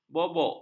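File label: "bobok", Malay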